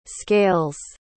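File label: "scales", English word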